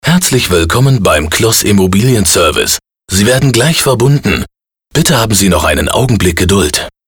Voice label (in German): Telefonschleife, Telefonansagen, maennlich; deutsch; Sprecher